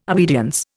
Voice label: "obedience", Indian

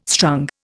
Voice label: "strung", Indian

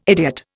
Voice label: "idiot", Indian

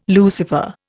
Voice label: "Lucifer", Indian

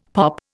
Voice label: "POP", Indian; Dictionary

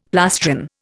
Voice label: "plastron", Indian; Dictionary

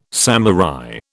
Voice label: "samurai", British

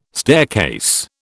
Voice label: "staircase", British

